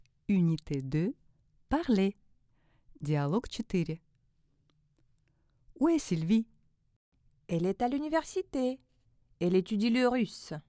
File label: dialog